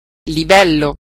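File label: level, Basque; gcompris-data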